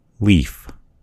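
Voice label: "leaf", US English; gcompris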